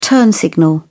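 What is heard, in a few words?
"turn signal", British English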